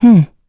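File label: Vox Think, English; Hilda; kings raid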